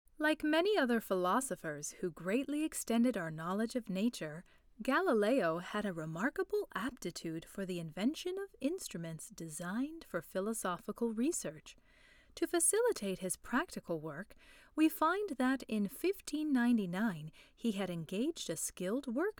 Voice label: Narrative

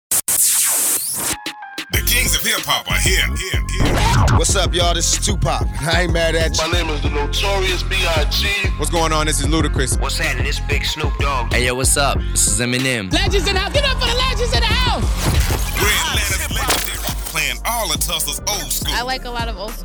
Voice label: Radio Imaging

